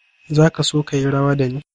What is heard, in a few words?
dance, hausa